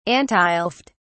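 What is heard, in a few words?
"antileft", British English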